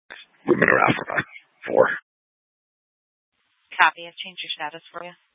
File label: Fire Departments Mesa Simulcast; TOPAZ